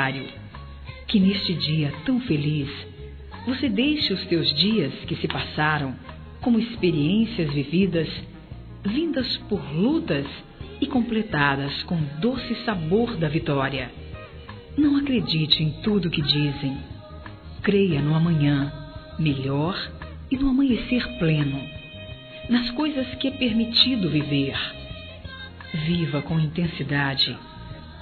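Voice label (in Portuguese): Padrinho, Feminino; Aniversários; Aniv Familiar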